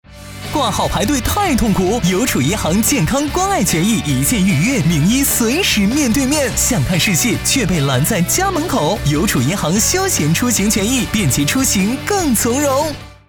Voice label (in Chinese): 广告 挂牌 排队